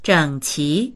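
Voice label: "zhěngqí" Mandarin Chinese; 整齐; 整然としている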